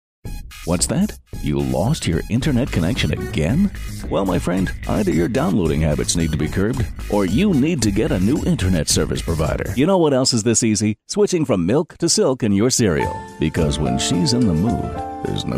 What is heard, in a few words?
commercial